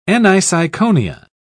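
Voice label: "aniseikonia", British English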